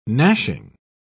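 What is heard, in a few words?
"gnashing", British English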